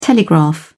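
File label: "telegraph", British English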